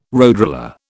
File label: "roadroller", British